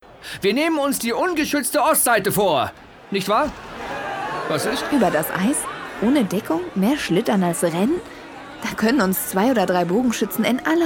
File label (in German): Hoerspiel fuer Erwachsene